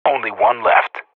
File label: radio bot kill count, vo professional